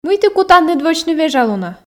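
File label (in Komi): мый ті кутанныд вӧчны вежалунӧ, Komi, female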